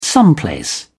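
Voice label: "someplace", British English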